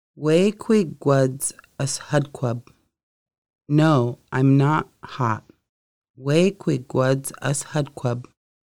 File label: No I am not hot, conversational Lushootseed